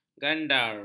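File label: "gandar", Malay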